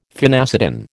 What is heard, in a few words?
"phenacetin", American